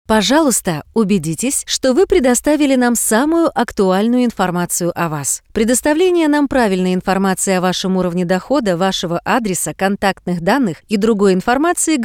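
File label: Russian, female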